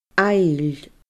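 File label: "aill", Scottish Gaelic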